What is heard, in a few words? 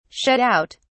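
"shut out", British English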